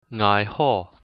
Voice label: im fine, hakka; 𠊎好; (ngai2 ho3); Chinese (Hakka)